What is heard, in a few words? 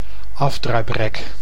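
"afdruiprek", Dutch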